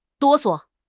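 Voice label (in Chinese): "哆嗦", Chinese; HSK6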